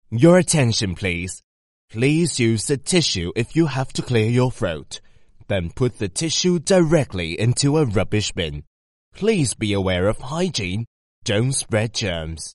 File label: Chinese English M Narration, accent of china; voice-over